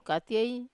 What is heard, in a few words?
"katiei", Wamesa